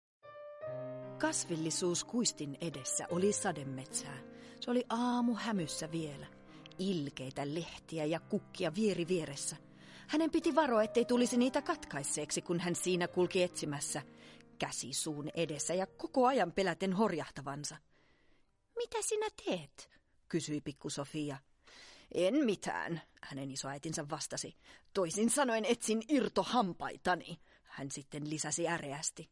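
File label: Finnish, female, narrative; voice over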